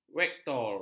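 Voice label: "vektor", Malay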